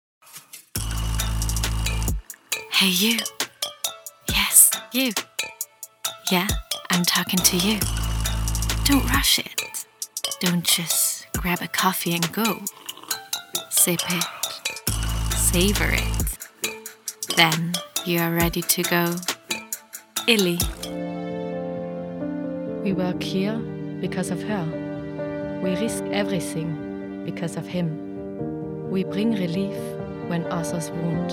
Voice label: female German and Swiss German English with soft German accent commercial